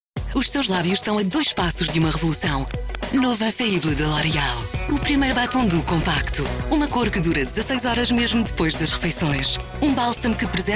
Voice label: TV Company Voice PT; L´Oreal Infallible

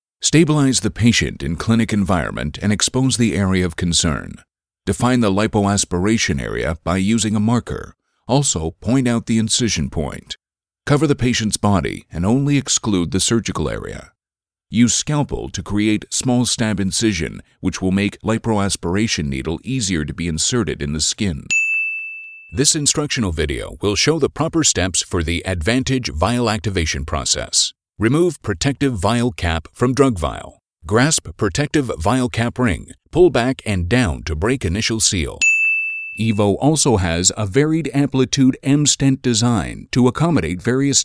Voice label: MEDICAL NARRATION

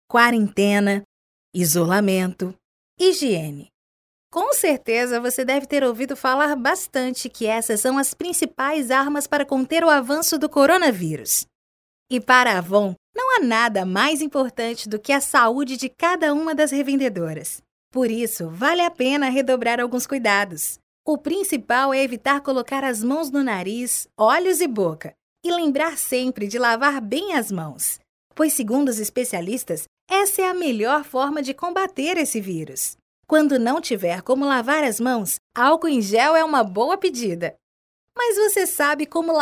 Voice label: Natural

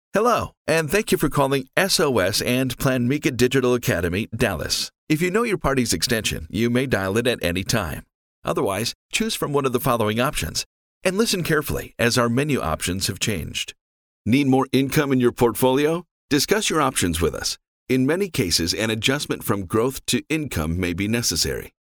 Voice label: IVR

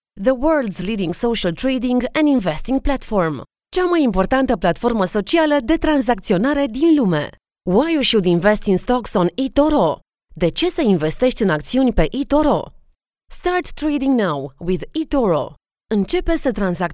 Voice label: Promotional Video

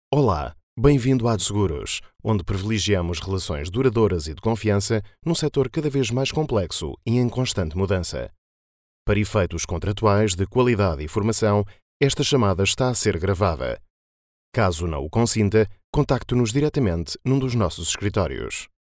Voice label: ivr

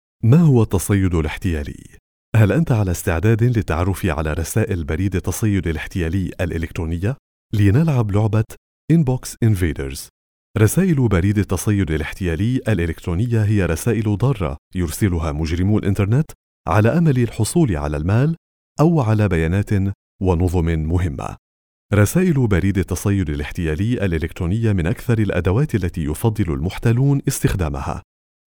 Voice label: E Learning cyber security

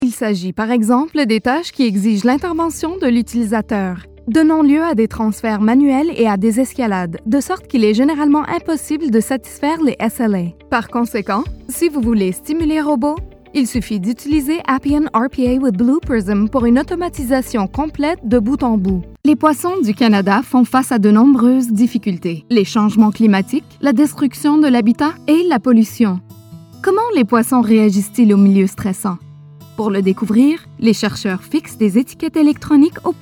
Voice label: Corporate Narration French Music

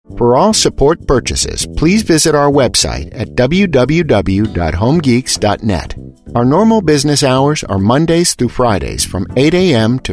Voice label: IVR

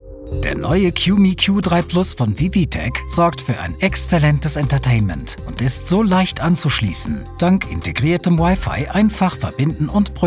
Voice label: Product Video; Vivitek

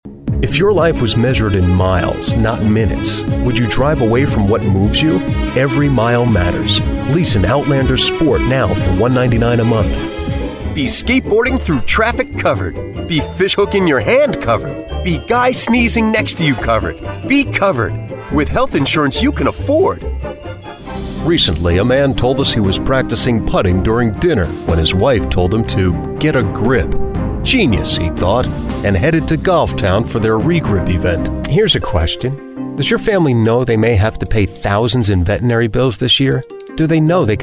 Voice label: Commercial

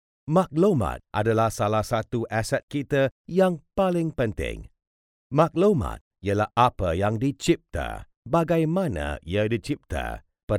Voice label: e Learning Malay

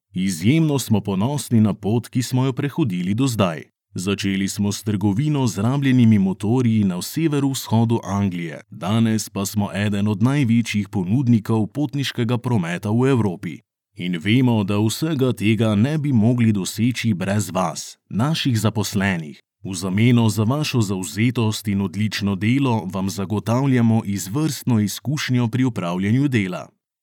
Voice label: Corporate; Arriva

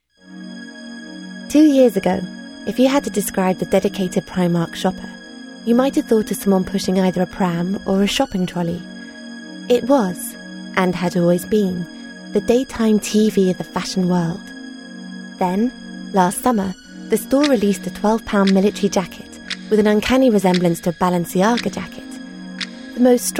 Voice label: Corporate; Primark

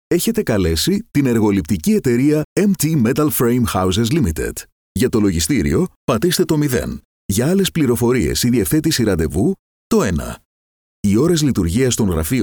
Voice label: Greek Phone System IVR

